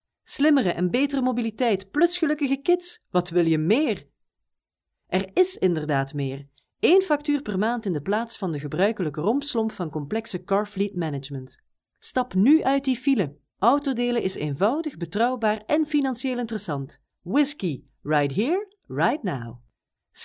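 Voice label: Flemish Belgium, female, voice over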